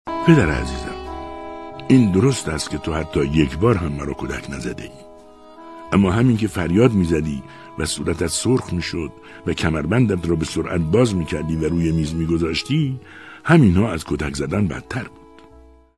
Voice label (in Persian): نمونه صدای آقای; آواگر